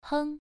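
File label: "heng", Mandarin Chinese, first tone, pronunciation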